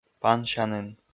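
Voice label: "пансянін", Komi, male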